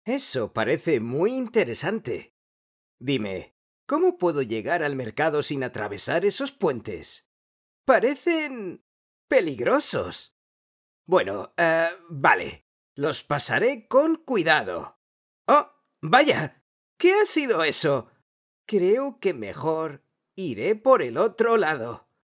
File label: locutor joven spanish voiceover